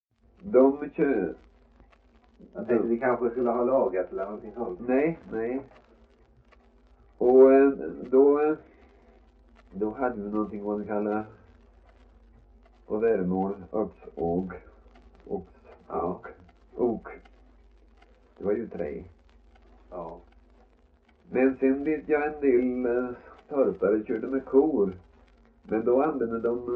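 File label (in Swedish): Värö Halland, Svenska sagor dialekter; Folkminnen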